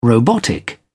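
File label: "robotic", British English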